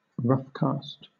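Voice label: "roughcast", British English; pronunciations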